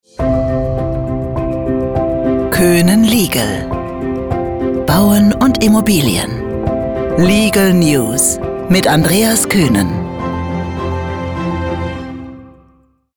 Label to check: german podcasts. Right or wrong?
Right